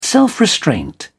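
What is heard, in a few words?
"self restraint", British English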